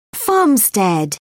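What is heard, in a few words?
"farmstead", British English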